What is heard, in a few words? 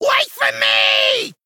Male Goblin Play